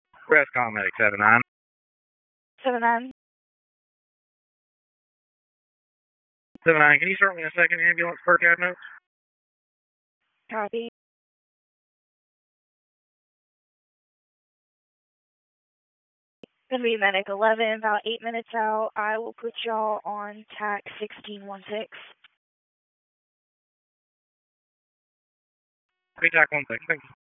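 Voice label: EMS dispatch; wake; p25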